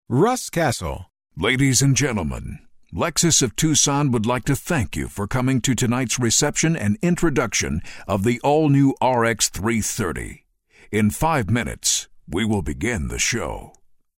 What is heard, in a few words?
COMMANDING, Commanding Commander